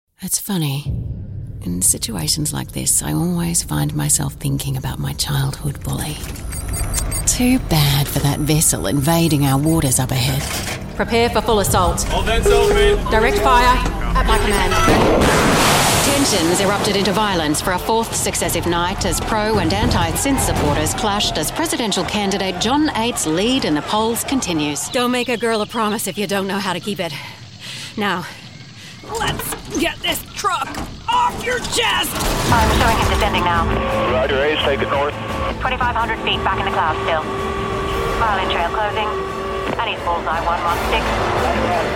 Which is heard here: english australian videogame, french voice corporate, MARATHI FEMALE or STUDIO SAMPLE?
english australian videogame